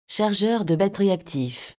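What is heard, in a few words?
battery charger mode active, fr_FR; SvxLink; BackupPower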